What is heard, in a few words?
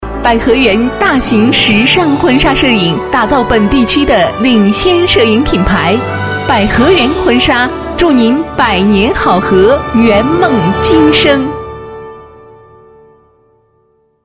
girl wedding dress, Chinese; daqi